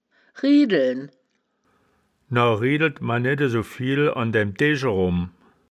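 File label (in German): "riedeln", wittlicher woerterbuch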